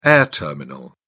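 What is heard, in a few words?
"air terminal", British English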